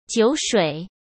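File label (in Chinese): "酒水", Mandarin Chinese; chinese flashcards; hsk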